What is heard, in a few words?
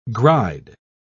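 "gride", British English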